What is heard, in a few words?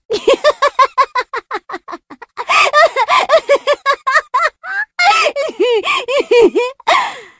english default voice; elsword naint ara; [Elsword NA] Ara – Risadinha.